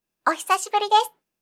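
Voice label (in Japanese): "ohisashiburidesu", Japanese; aisatsu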